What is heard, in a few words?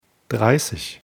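"dreissig", German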